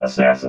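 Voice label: "assassin", robot voice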